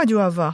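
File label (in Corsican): aghju da fà, nord et sud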